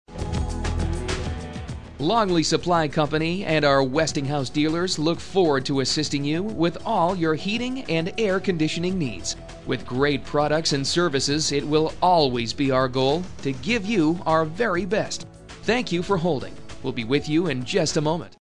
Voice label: Supply On Hold, messages, on hold; supply distribute; Longley Supply